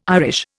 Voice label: "Irish", Indian